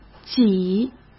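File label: "挤", Mandarin Chinese; chinese-flashcards; hsk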